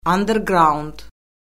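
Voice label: "anderqraund", Azerbaijani; Orfoqrafiya Lüğəti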